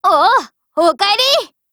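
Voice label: HELLO, Japanese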